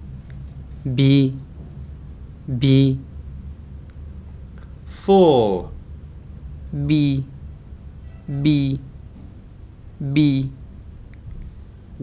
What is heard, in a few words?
Lisu